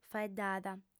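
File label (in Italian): "faeddada", lingua sarda, female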